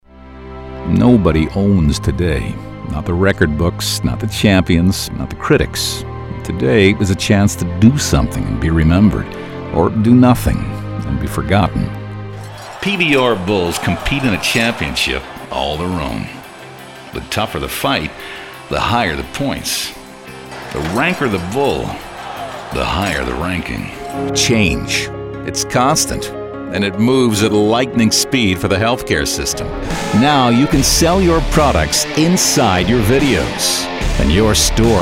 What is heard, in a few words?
narration